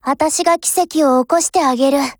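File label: Japanese; G11Mod; BLACK ACTION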